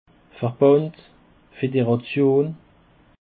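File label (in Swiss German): "verband", Bas Rhin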